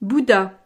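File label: "bouddha", French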